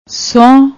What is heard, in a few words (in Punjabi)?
"sao", Punjabi; ਸੌਂਹ